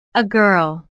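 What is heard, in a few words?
"a girl", English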